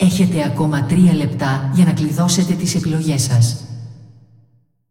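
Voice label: announcer female, pt br; Clash; tournaments lockin 3min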